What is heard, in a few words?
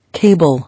"Cable", English